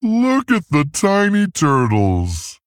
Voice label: Male Tauren

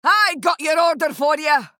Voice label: Female Dwarf Play

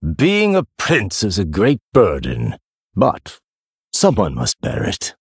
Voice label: Male Blood Elf

